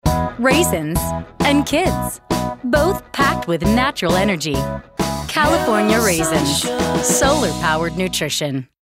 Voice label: Kid Friendly